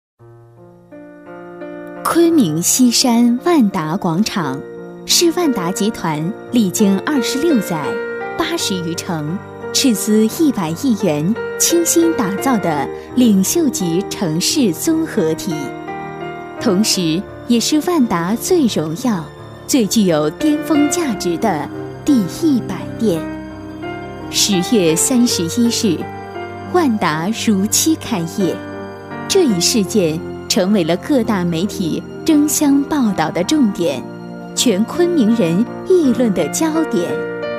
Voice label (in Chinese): 女 年轻甜美, 房地产广告 温情篇; 万达广场; 国语女声配音